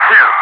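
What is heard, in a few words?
"2" calm, English; Wingman; DCS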